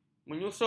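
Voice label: "menyusun", Malay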